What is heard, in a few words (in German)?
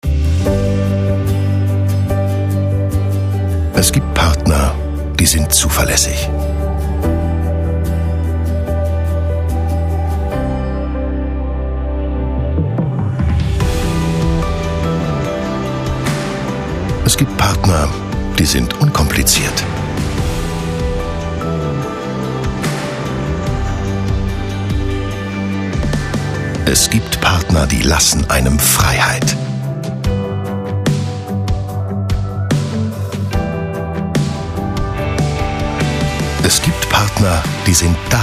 Werbung Sanft